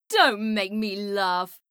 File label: Female; Skyrim Special Edition; Dawnguard Beleval